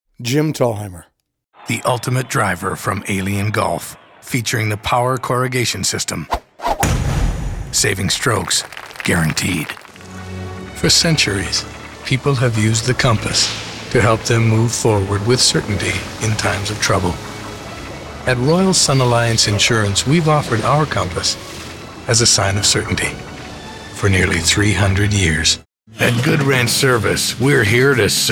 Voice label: Commercial